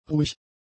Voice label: "ruhig", German; adjectives